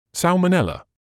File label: "salmonella", English